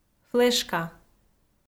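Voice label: "флешка", Komi, female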